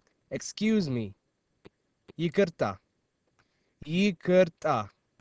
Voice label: Amharic Excuse

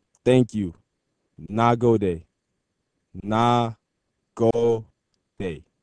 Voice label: Hausa Thanks

